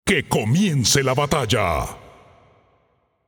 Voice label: Announcer Match Start; Paladins

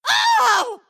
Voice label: pain; Snapfire

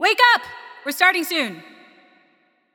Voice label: Announcer Match Start Prepare

